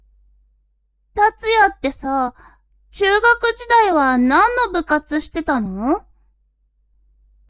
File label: woman